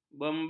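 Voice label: "bembet", Malay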